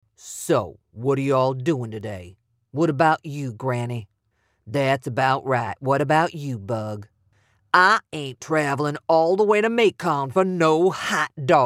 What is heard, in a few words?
Male Southern